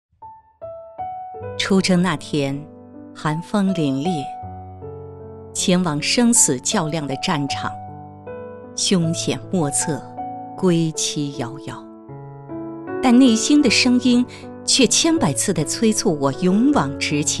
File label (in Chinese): 女-人物专题; 护士